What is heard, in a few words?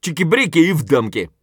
scav mutter, voice; Escape from Tarkov; scav5